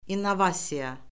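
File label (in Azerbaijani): "innovasiya", Azerbaijani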